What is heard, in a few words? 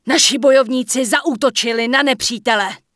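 Human Female Standard NPC Vendor, Czech; wowpreklad; czwow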